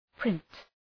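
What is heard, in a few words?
"print", English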